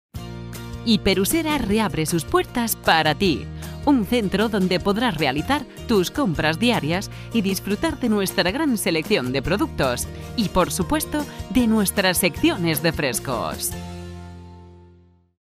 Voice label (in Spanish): APERTURA FEMENINA; APERTURA VILLAVERDE